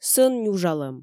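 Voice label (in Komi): сӧн нюжалӧм, Komi, female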